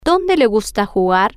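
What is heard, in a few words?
where does she like to play, Spanish, female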